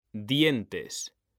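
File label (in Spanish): "dientes", Spanish; mekanta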